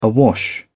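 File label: "awash", British English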